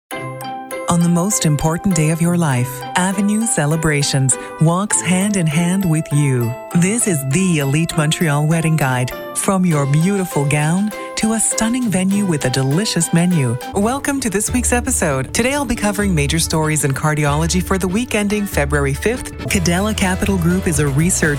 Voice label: Commerical English

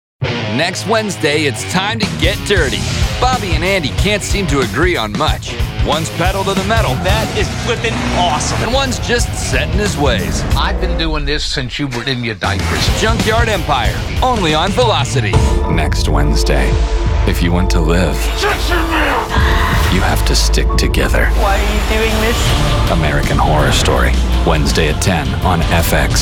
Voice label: Promo

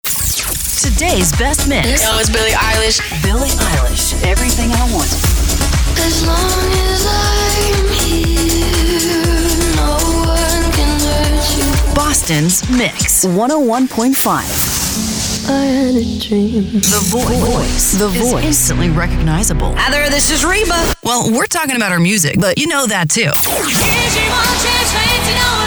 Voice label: radio imaging

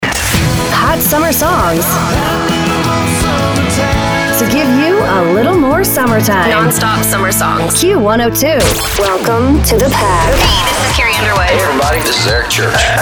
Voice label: Imaging Country

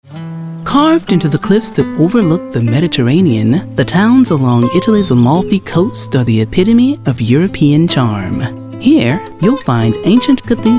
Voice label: Narration

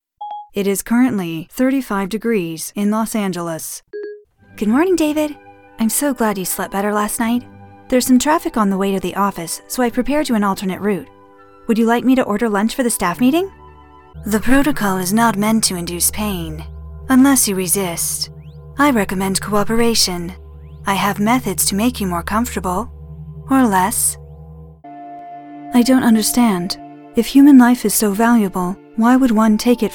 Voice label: A.I. Computer

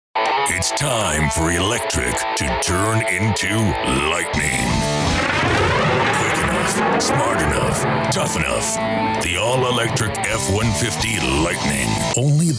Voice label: Commercial

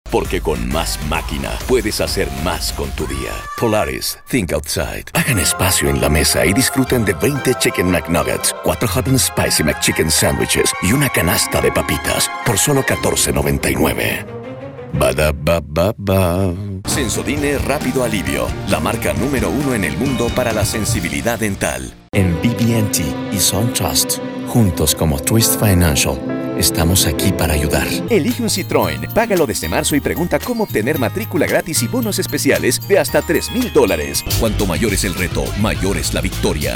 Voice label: Commercial Spanish

